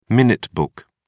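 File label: "minute book", British English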